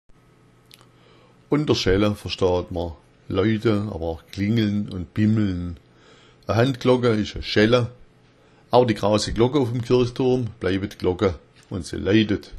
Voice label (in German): schella, schwaebisch